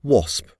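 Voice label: "wasp", English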